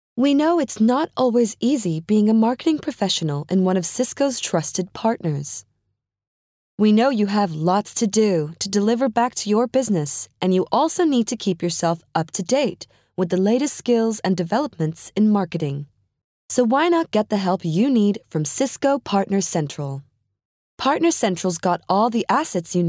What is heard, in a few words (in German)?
Nachvertonung Film Sprecherin Englisch US